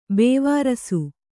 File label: "ಬೇವಾರ್ಸಿ", Kannada